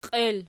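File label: "qiel" nominative, Archi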